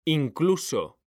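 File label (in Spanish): "incluso", Spanish, male; mekanta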